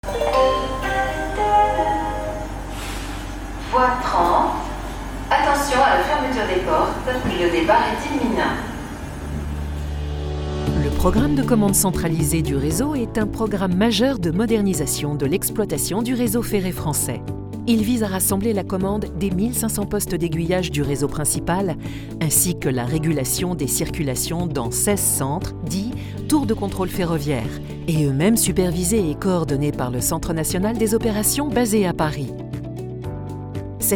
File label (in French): voix off feminine france; sncf ccr alsace